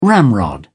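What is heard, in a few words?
"ramrod", British English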